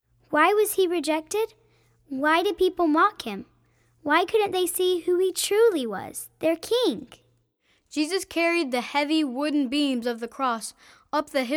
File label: Narration